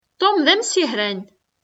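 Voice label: "Tom d amsihran.", Kabyle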